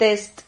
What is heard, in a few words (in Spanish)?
"test", Silabas